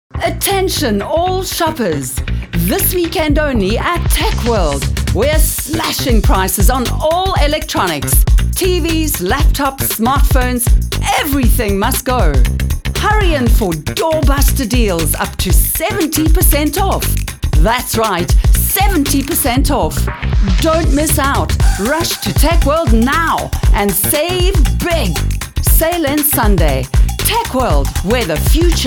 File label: Hard Sell Promo; South Africa; Tech World